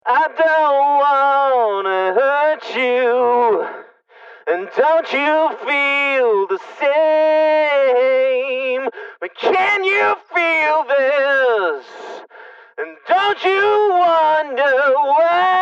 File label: megaphone; pod x3